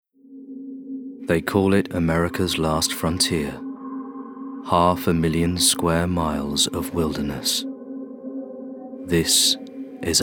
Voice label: Narrative; Alaska